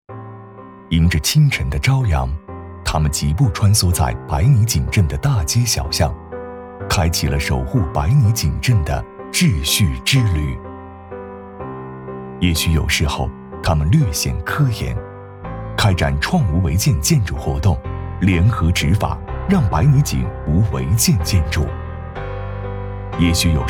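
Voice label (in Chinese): 旁白解说综合行政执法; 白泥井综合行政执法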